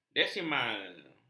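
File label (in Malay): "desimal", Malay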